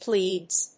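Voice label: "pleads", English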